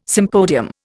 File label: "sympodium", Indian